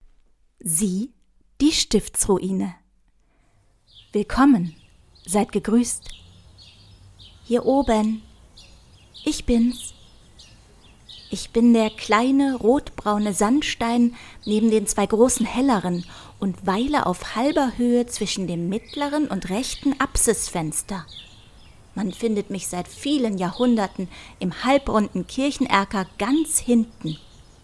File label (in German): hoerbuch teaser; stiftsruine